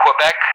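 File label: "Quebec" English, speech; Alphabet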